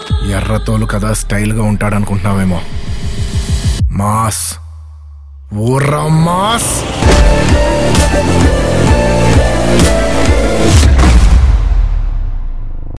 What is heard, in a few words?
Mass Dialogue Tone, Telugu